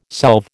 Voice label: "solve", American